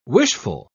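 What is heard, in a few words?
"wishful", English, British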